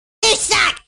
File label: "suck", Furby